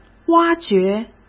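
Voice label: "挖掘" Mandarin Chinese; chinese flashcards; hsk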